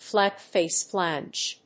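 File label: "flat-face flange", English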